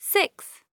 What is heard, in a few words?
English Number